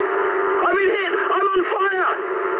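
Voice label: English on fire mood high; speech; War Thunder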